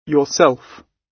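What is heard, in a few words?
"yourself", British English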